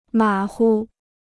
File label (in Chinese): "马虎", Chinese